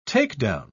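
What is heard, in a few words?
"takedown", British English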